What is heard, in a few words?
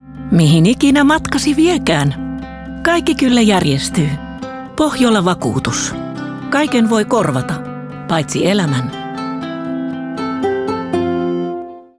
radio; pohjola; radio master